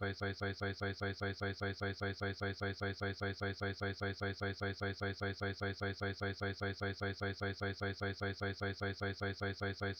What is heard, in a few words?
Frozen Speech; kelvasa2015